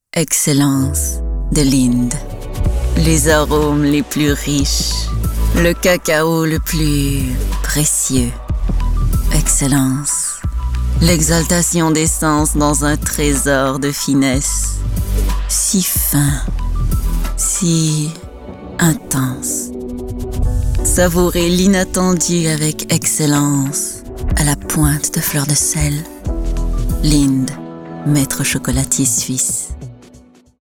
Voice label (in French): Voix d'annonceur sensuelle et charmante voix adulte, Sensuelle/sexy - Voix grave - Français soutenu; Lindt